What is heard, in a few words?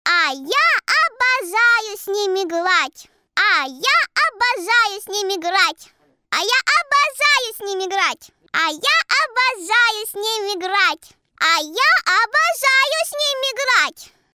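actress, Russian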